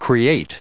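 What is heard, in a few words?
"create", US English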